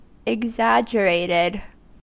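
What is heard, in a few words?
"exaggerated", American English; pronunciations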